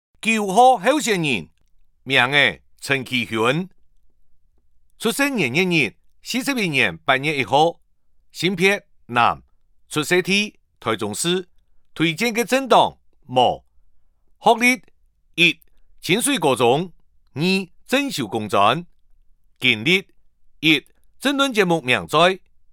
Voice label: 客語; 臺中市; 有聲公報; 市議員; 第02選區

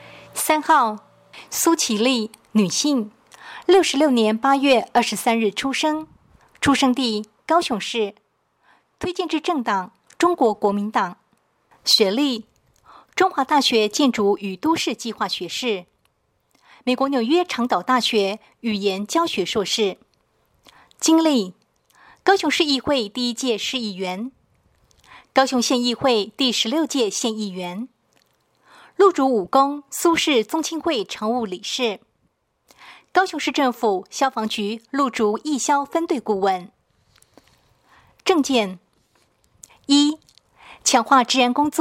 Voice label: 國語; 第二選區

